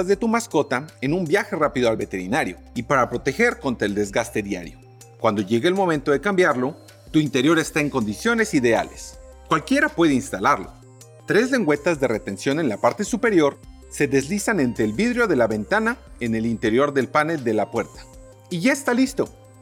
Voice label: Door Protector Spanish

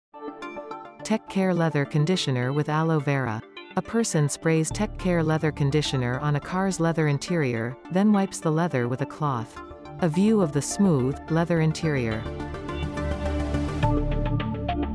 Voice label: Leather Conditioner short promo; TechCare

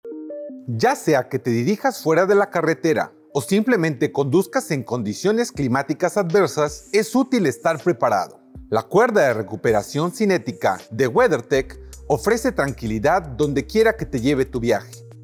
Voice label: Kinetic Recovery Rope Spanish

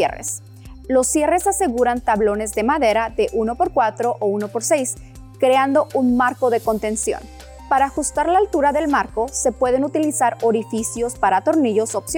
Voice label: Cargo Tech Pro Spanish

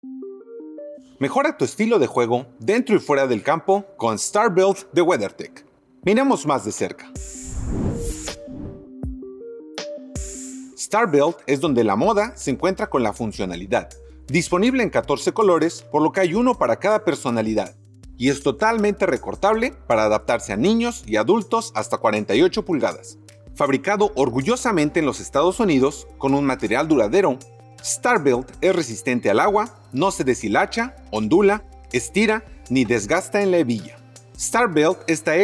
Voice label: Spanish; Star Belt

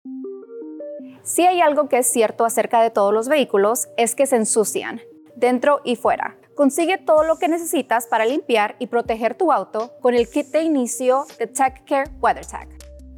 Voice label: Everything Starter Kit Spanish; TechCare

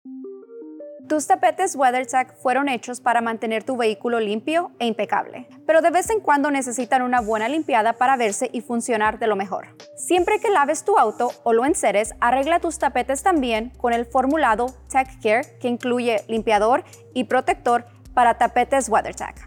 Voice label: Spanish; TechCare Floor Liner Floor Mat Cleaner Protector Kit

